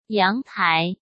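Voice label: "阳台", Mandarin Chinese; chinese flashcards; hsk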